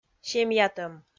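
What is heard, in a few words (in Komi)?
"семьятӧм", Komi, female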